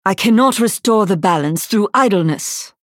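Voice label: Hero Idle US English, Женщина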